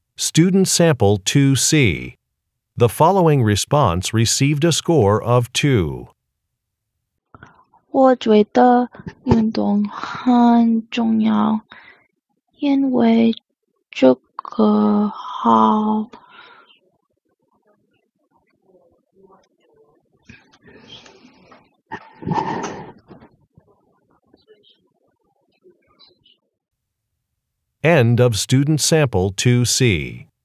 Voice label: chinese conversation

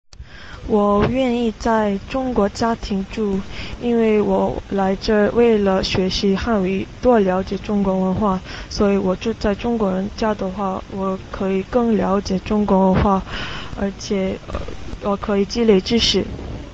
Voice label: sample chinese conversation

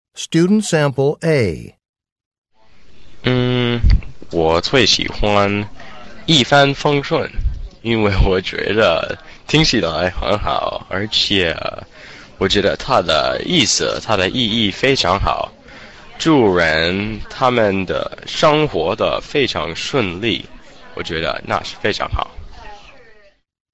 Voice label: Chinese conversation; Conversation 4 Sample A (Score of 6)

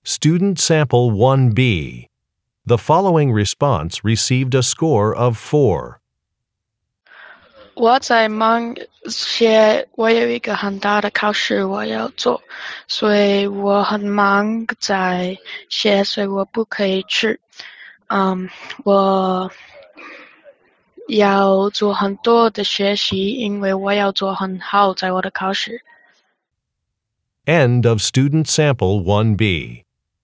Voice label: chinese conversation; Interpersonal Speaking Samples

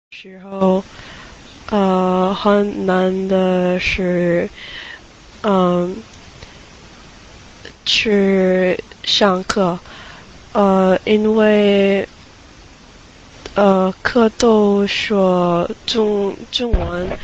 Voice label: sample chinese conversation

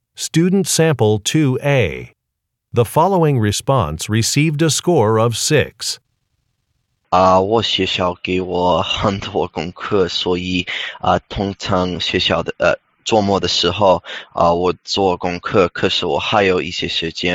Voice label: chinese conversation

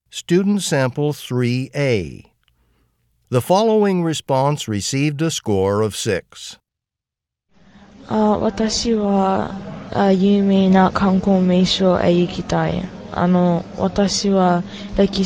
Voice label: japanese conversation; Conversation 3 Sample A (Score of 6)

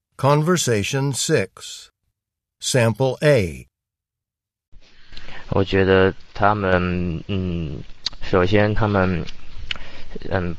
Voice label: Chinese convo; Conversation 6 Sample A (Score of 6)

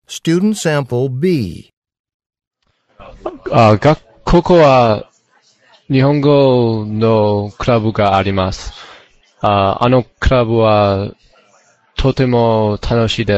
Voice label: japanese conversation; Conversation 3 Sample B (Score of 3)

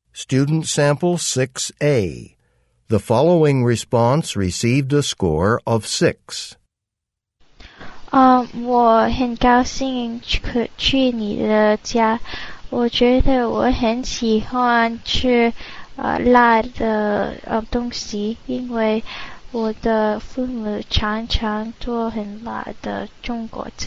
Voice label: Chinese convo; Conversation 6 Sample A (Score of 6)

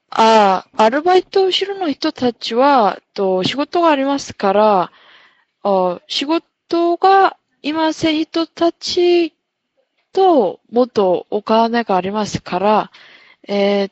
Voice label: Japanese Conversation; Conversation 3 Sample C Score 1

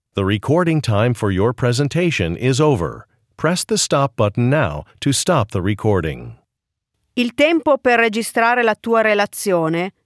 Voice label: italian directions end of part b

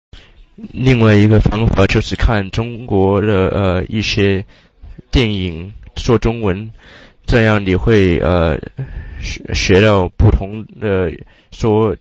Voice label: sample chinese conversation

